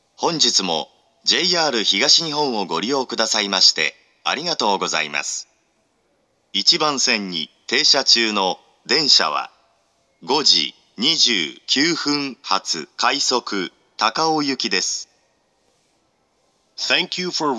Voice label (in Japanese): 出発予告放送, 発車メロディー; １番線出発予告放送; 東京; 管理名称は「ＪＲＥ‐ＩＫＳＴ‐０１６-０２」です。「中央快速線下り新汎用曲」です。